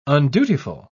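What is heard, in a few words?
"undutiful", British English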